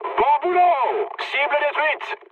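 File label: French bomb success mood high; War Thunder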